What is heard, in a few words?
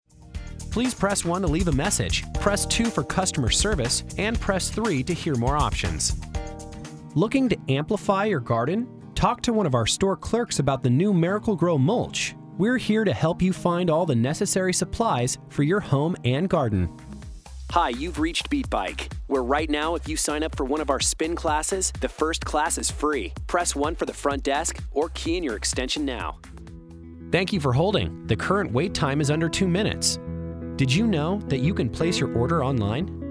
IVR